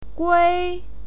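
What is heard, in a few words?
"gui", Mandarin Chinese pinyin, first tone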